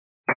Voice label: 122.925 MHz; scanner radio